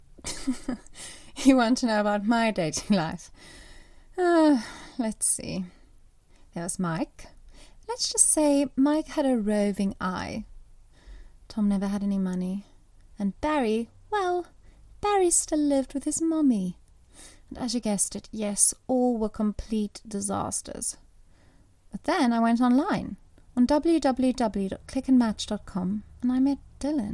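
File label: Afrikaans, accented English; GoLocalise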